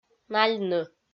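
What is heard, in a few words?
"нальны", Komi-Zyrian, female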